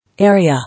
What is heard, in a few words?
"Area", English